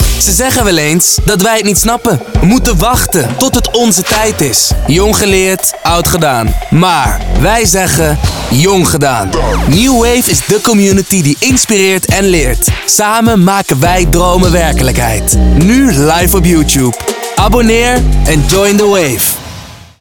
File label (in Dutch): Urban, voice-over; New Wave